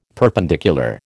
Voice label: "perpendicular", American

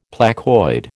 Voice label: "placoid", American

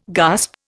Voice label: "gasp", Indian

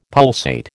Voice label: "pulsate", American

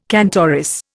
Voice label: "cantoris", Indian

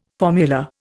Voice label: "formula", Indian

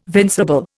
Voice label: "vincible", Indian

